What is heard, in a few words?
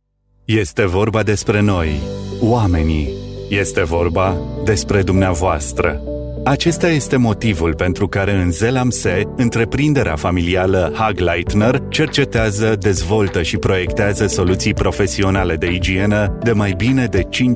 romanian voice corporate, Smooth Velvety Romanian Male Voice